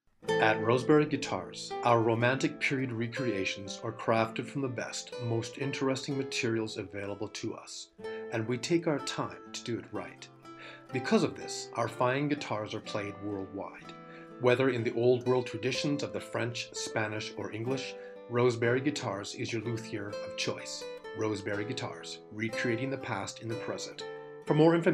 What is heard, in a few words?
PSA Radio